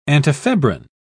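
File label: "antifebrine", British English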